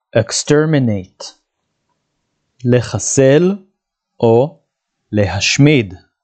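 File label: "exterminate" English, "לחסל להשמיד" Hebrew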